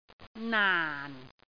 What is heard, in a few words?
"naan4", cantonese